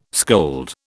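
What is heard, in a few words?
"scold", British English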